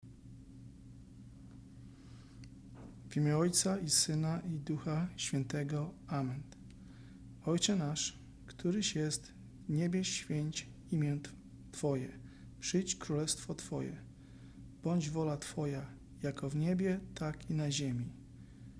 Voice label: lords prayer polish; advent unwrapped